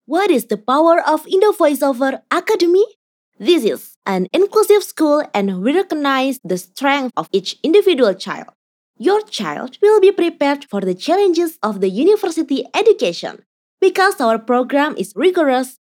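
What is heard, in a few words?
CASUAL English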